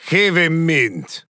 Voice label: Taunt Directed; Viktor; Drogoz; Paladins